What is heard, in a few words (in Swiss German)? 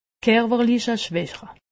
"kerwerlicheschwache", alsace; medecine